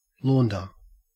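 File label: "launder", Australian English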